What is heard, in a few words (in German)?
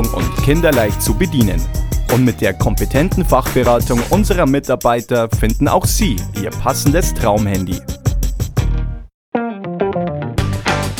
Werbung Handy